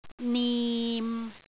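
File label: "nim6", Cantonese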